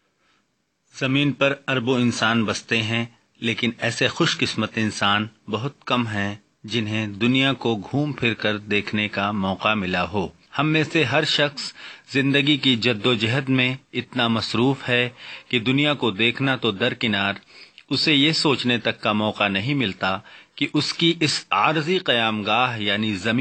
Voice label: elearn urdu